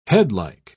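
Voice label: "headlike", British English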